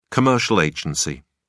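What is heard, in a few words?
"commercial agency", English, British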